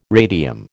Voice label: "radium", American

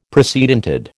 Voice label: "precedented", American

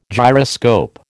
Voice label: "gyroscope", American

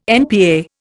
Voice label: "NPA", Indian

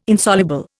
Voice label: "Insoluble", Indian

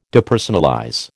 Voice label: "Depersonalize", American

